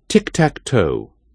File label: "tic tac toe", British English pronunciation